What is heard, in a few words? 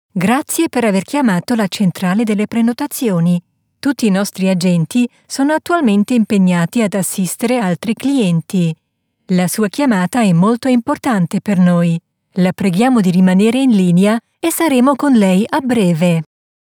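italian interactive voice response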